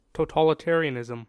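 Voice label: "totalitarianism", US English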